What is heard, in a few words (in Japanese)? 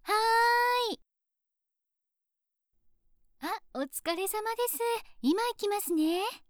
今行きます, インターホン用音声